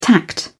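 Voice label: "tact", British English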